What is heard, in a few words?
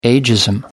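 "ageism", British English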